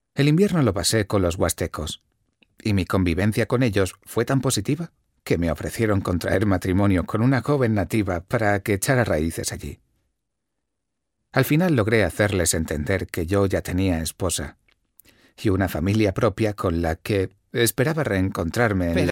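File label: Spanish, male, narration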